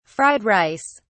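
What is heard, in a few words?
"fried rice", British English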